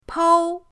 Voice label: "pou1", pinyin